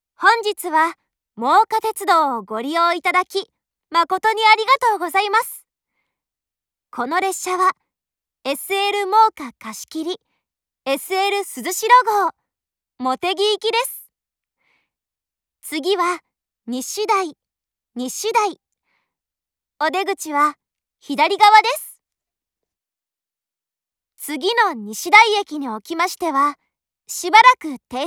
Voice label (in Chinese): 茂木方向 西田井站抵達前 廣播; 萬岡鐵路社内放送